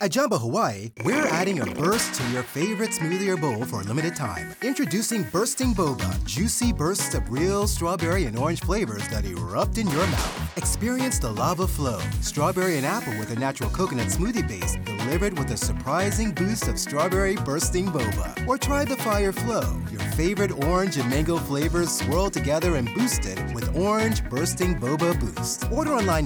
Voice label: Commercial VO